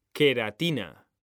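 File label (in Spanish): "queratina", male; mekanta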